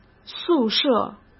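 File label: "宿舍", Mandarin Chinese; chinese-flashcards; hsk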